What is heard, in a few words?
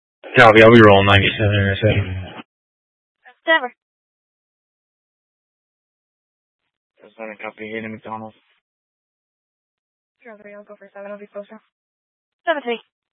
Scottsdale Police, Simulcast